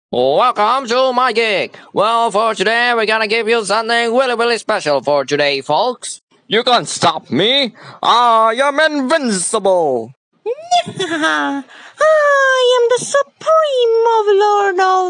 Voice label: English (Asian), Male